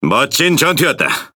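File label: Speech Quick Commands Good Game, Korean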